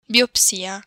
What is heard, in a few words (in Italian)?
"biopsia", Italian